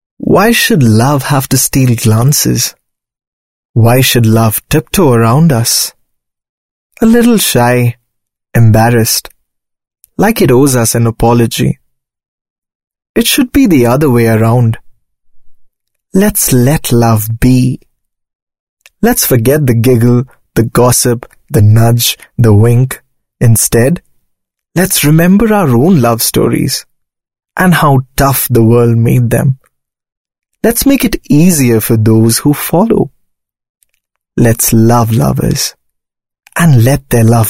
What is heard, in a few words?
english narration, male voice